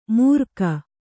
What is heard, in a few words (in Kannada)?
"ಮೂರ್ಕ", Kannada